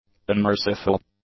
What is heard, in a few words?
"unmerciful", English; adjectives